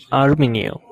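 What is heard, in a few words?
"aluminum", Japanese, male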